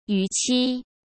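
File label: "逾期", Mandarin Chinese; hsk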